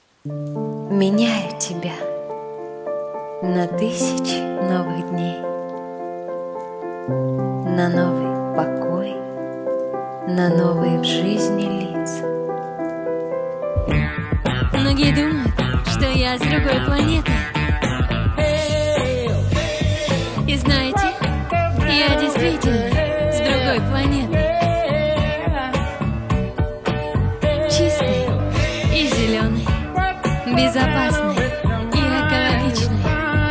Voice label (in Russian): Реклама